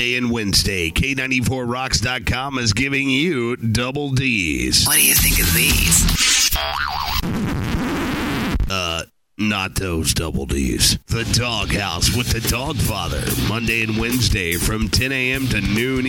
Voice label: show promo